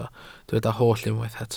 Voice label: "holl", Welsh

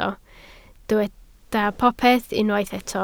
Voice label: "popeth", Welsh

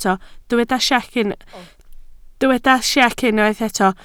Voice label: "siec", Welsh

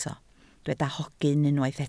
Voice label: "hogyn", Welsh

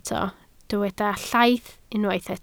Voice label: "llaith", Welsh

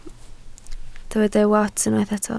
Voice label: "wats", Welsh

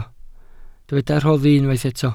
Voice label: "rhoddi", Welsh

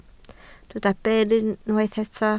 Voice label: "byr", Welsh

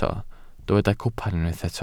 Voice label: "cwpan", Welsh

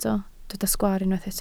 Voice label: "sgwaar", Welsh

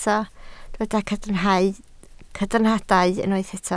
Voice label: "cadarnhadau", Welsh

